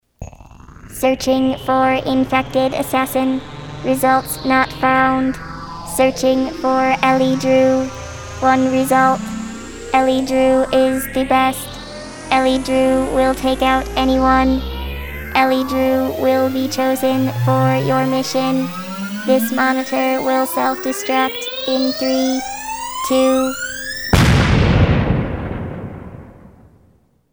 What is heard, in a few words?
robot; Ellie; Time for Tea?